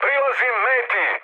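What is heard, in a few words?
Serbian near waypoint mood high; War Thunder; speech srb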